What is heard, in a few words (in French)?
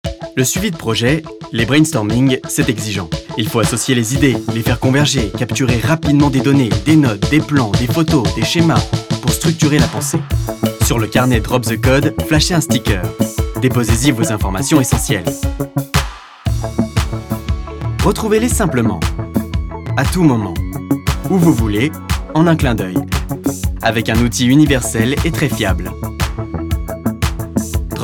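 posée mais dynamique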